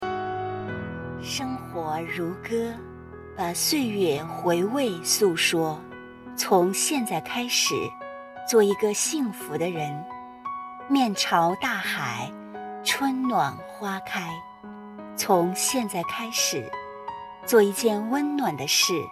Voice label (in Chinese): 知性优雅的冰箱广告